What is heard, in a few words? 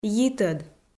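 "йитӧд" Komi, female; audio dict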